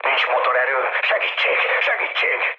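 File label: Hungarian damaged engine mood med, speech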